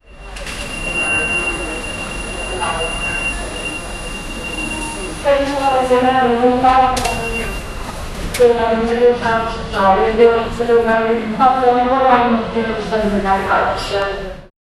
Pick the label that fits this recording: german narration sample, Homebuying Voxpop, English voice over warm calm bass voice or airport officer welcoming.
airport officer welcoming